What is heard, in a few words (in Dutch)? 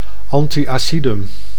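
"anti-acidum", Dutch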